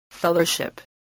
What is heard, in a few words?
"fellowship", spelling